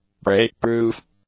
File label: "break-proof", English; adjectives